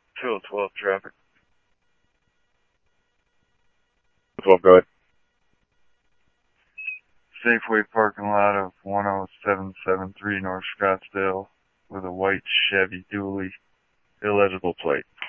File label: Police, scanner audio